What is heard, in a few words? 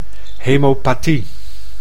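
"hemopathie", Dutch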